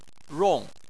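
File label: "rong", Chinese, tone 4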